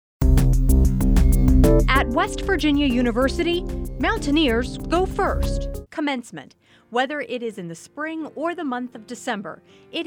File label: spot; wvu december commencement russell athletic bowl